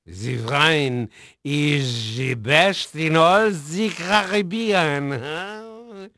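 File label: French male, voice; Pirates Of The Caribbean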